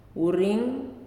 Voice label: "louse", Nalik

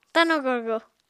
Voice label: "tano-gorgo", apatani

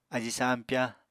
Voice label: "ají sampyá", Apatani

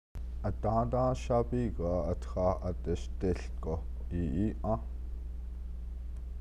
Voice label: navajo postposition; I spent the day yesterday taking part in a stick

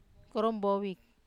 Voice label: "korombovi", Wamesa

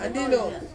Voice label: "andino", Ansus; where

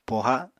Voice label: "poha", apatani

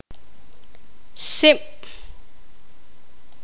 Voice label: poqomam; humo

